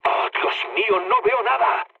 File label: searchlite, mood med, speech; War Thunder